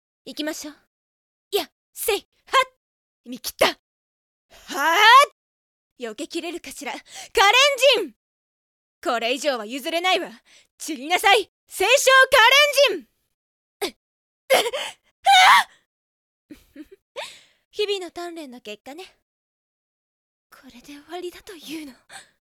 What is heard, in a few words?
lady battle, samplevoice